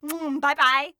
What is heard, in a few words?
"bye bye", voices